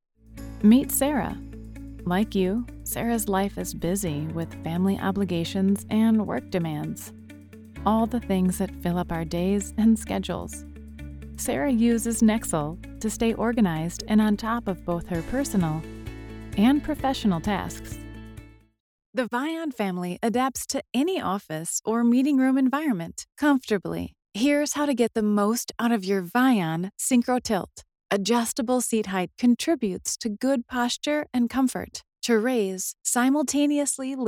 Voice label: Business Narration